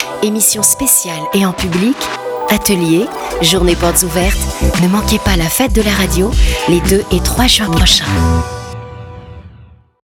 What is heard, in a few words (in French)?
spot; Fete de la radio 10sec